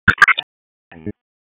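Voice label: Police Dispatch; Wake Forest Police Disp; p25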